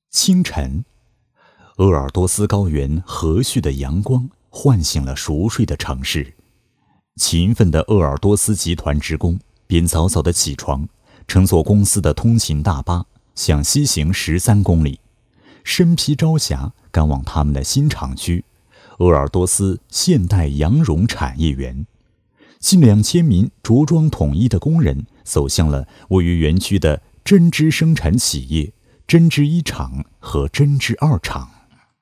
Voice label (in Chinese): 男, 浑厚磁性, 国语男声配音; 鄂尔多斯; 鄂尔多斯完美版